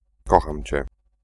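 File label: iloveyou, male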